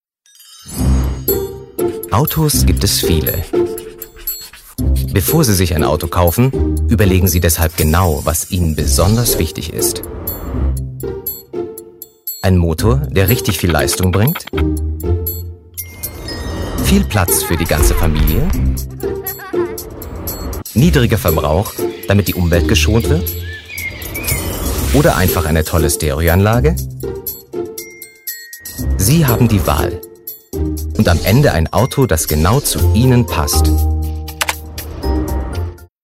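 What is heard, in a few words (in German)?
werbung, deutsch männer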